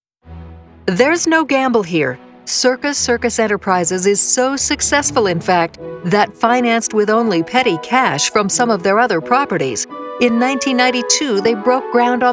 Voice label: CORPORATE NARRATION